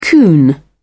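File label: "coon", British English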